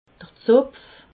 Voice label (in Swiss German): "zopf", alsace